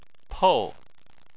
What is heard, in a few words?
"pou4", Chinese